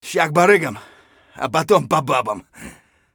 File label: scav mutter, voice; Escape from Tarkov; scav1